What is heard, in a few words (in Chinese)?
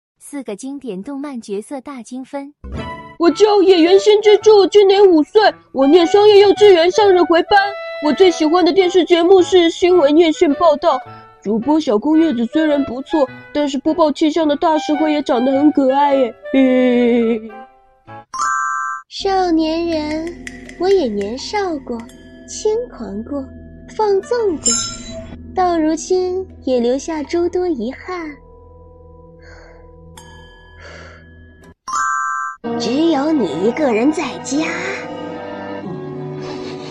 四位动漫人物配音